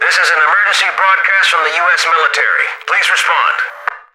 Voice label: radio initial broadcast, soldier; Left 4 Dead 2; left4dead2 dlc3; npc

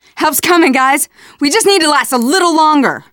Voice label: radio used generic, teengirl; player; survivor; voice

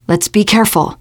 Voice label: warn careful, teen girl

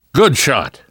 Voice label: nice shot, namvet, survivor voice

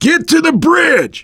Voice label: directional, voice, biker; Left 4 Dead 2; left4dead2 dlc3; survivor

